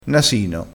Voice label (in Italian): "nasino", crespinese